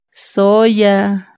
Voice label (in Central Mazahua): "soya", Mazahua